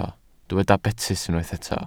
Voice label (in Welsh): "betys", Welsh